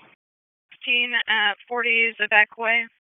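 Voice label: Police Dispatch, p25; Raleigh Police Southwest District Disp; wake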